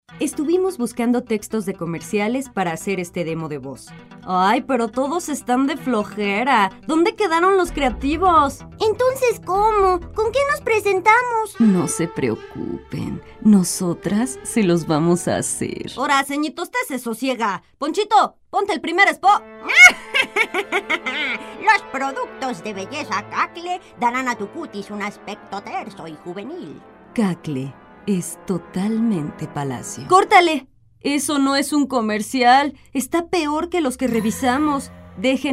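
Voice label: latam mexican female commercial; voice demos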